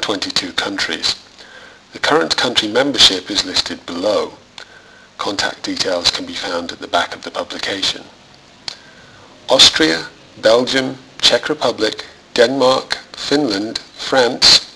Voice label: advertising